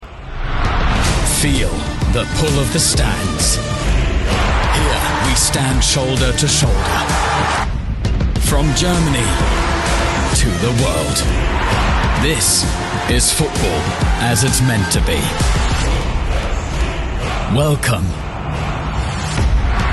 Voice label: ENGLISH, NATIVE UK - sportlich, aktivierend, Commercial (Werbung); BUNDESLIGA